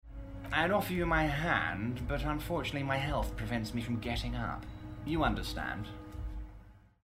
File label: voiceover; evrat